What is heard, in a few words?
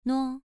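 "nuo2", Chinese pronunciation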